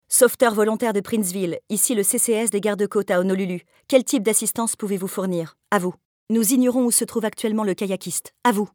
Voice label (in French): radio